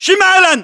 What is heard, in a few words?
direction relative north, battle chatter, english